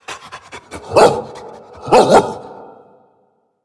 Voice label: Undead Beast Emote Start; Arfus

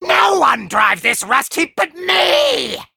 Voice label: Male Imp Play

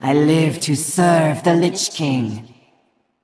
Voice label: Female Val Kyr Play

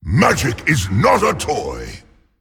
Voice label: Male Dragon Drakonid

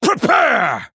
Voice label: Male Night Elf Hero Power; Kurtrus

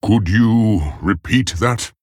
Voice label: Male Tauren Play

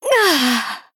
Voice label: Female Goblin Death

